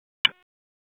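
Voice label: Police Dispatch, p25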